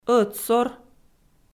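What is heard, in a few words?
"ӧтсор" Komi, female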